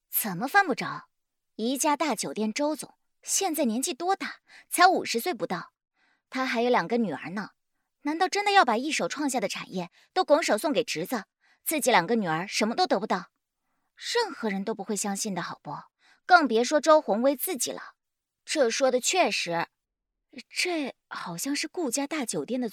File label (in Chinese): 角色音